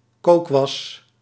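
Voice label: "kookwas", Dutch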